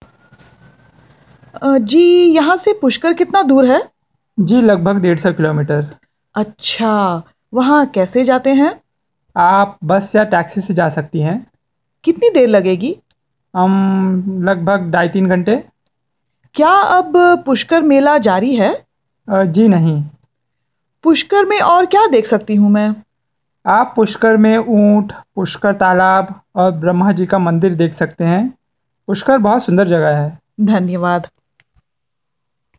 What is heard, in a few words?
Pushkar hindi